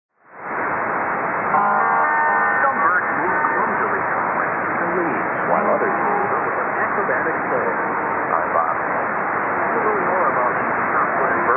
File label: 4319kHz USB; AFN-Diego Garcia